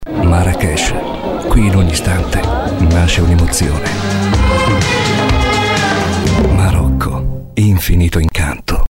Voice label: Spot; Marocco